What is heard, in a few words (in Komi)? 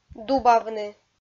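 "дубавны", Komi, female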